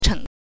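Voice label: "cheng", Mandarin Chinese pinyin, neutral tone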